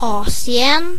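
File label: asia, Swedish; gcompris-data; geography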